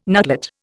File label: "nutlet", Indian